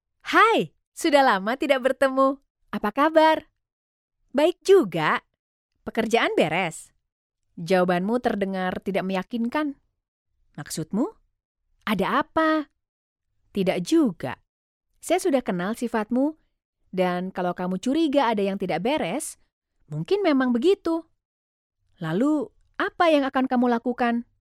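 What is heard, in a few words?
Indonesian General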